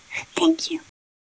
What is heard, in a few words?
Voice Acting es